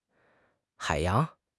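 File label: "hai3 yang2", Mandarin Chinese; HSK4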